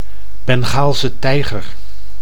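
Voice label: "Bengaalse tijger", Dutch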